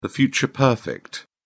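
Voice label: "future perfect", British English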